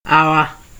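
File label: "our", Nigerian Pidgin, male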